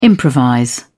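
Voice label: "improvise", British English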